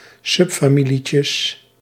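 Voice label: "subfamilietjes", Dutch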